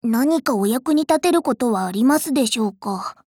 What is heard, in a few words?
HELLO, JP; Pekora